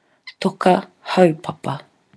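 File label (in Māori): "toka haupapa", Māori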